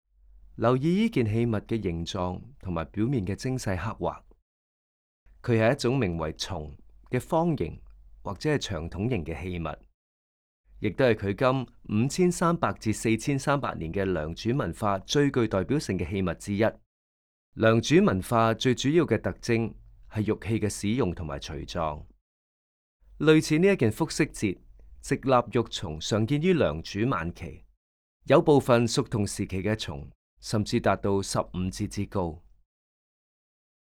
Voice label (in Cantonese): Can VO; audio guide